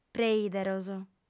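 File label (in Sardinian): "preìderos", female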